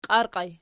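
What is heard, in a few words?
"qiarqii" locative, Archi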